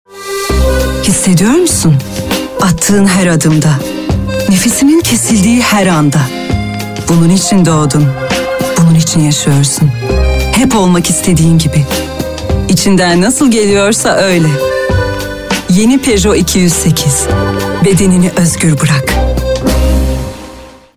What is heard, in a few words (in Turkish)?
reklam; peugeot